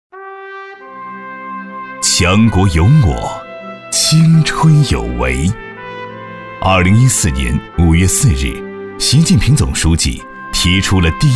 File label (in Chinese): 男 党政宣传; 南京大学